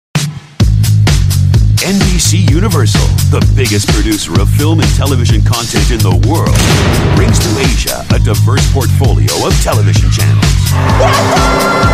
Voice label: US English Sizzle Reel; NBC